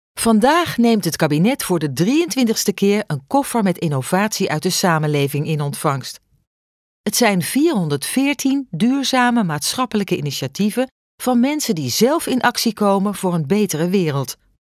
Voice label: female Dutch corporate; duurzame dinsdag

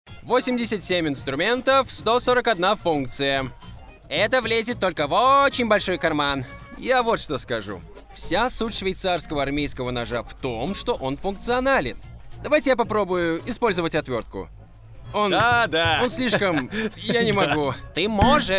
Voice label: voices male russian adr; dude perfect; Devine Voices

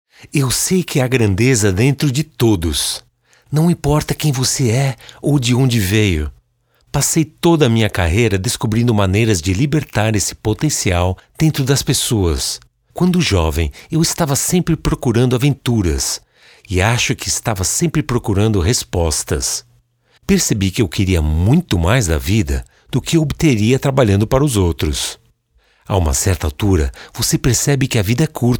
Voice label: male brazilian portuguese commercial

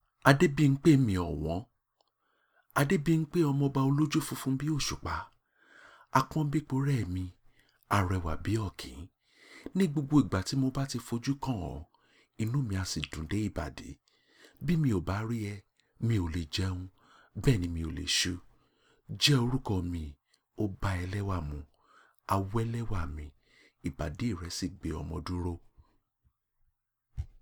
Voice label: male yoruba, yoruba poetry reading

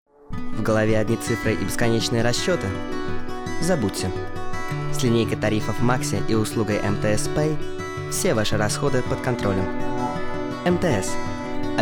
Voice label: male russian

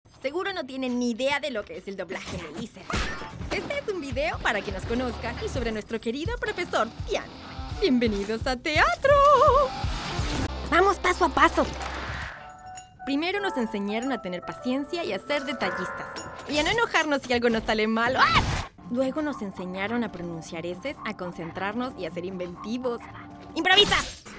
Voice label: female argentinian neutral spanish latam dubbing; iser dubbing project

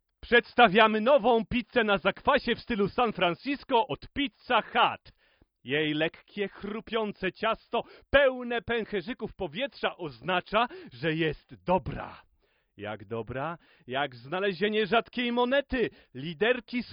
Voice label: male polish commercial; pizza hut

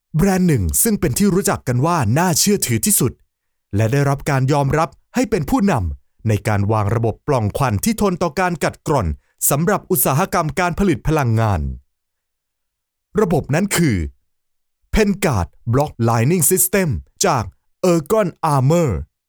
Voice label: male thai corporate product presentation; devine voices

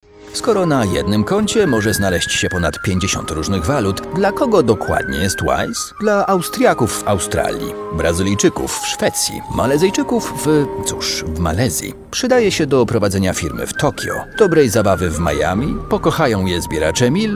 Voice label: male polish video commercial

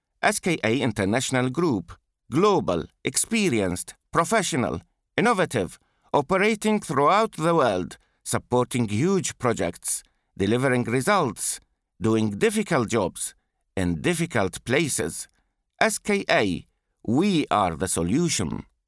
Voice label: male arabic english with arabic accent commercial; voices; ska international group; devine voices

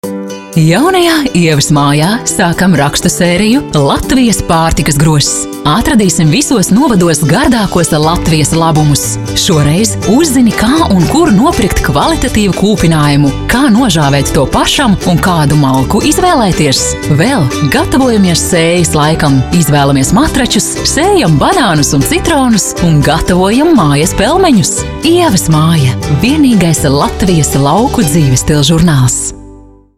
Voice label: female latvian and russian latvian commercial

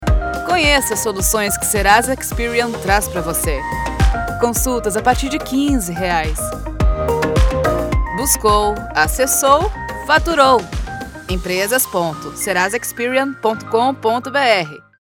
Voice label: female brazilian portuguese commercial; serasa

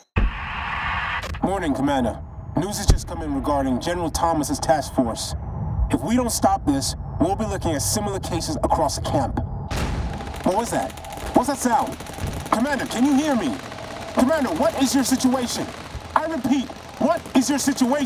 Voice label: male english usa accent gaming

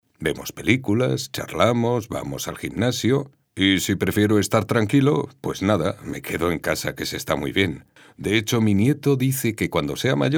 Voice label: male spanish castilian senior character voice

